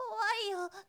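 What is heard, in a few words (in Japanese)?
"kowaiyo", Japanese boy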